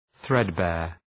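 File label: "threadbare", English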